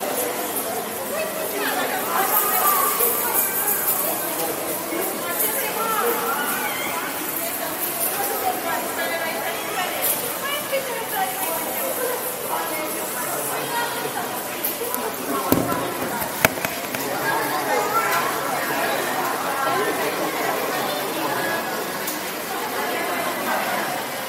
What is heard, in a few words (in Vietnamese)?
tieng xi xao noi chuyen on ao